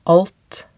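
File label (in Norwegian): "alt", Norwegian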